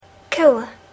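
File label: "cola", English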